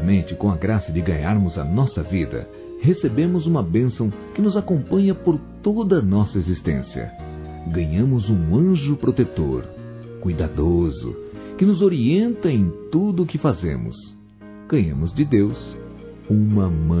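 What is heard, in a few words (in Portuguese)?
MÃE VOCÊ É UMA BENÇÃO, Masculino; Dia das Mães; Telemensagens 2017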